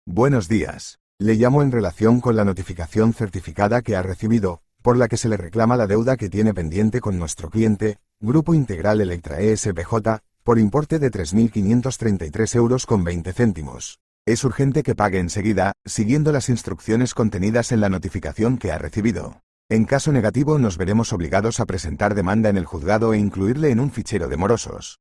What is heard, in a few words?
audio call